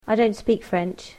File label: "I dont speak French", English